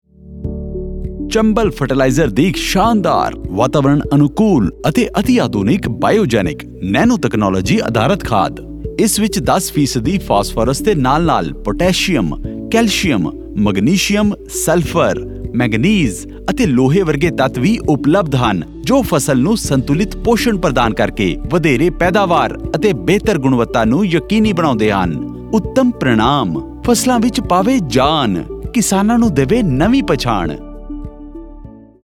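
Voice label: punjabi; chambal fertilizer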